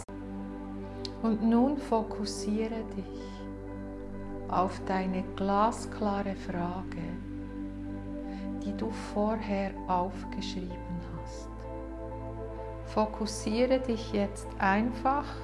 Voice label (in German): Hoerprobe Meditation; Meditation Hoeheres Selbst; Buch Shine Your Light